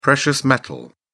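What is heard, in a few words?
"precious metal", British English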